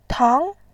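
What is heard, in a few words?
"tang", Mandarin Chinese, second tone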